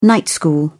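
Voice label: "night school", English, British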